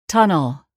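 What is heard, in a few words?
"tunnel", English word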